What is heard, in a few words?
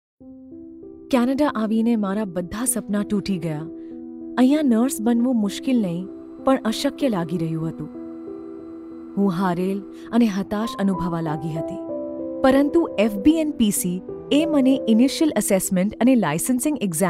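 Gujarati, General; GoLocalise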